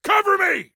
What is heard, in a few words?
Defend Me, Soldier Male; Soldier Male 03